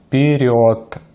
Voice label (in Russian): "вперёд", Russian; Consonantes en ruso; easypronunciation